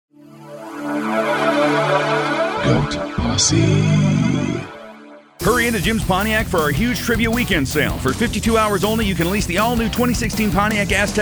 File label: Commercial